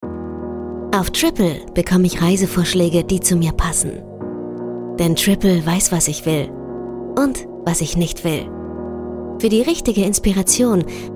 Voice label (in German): Sanft; Fremdtake